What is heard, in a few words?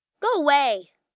Go Away, Calls, 1 child, 8 yrs.; Talking; VoiceBox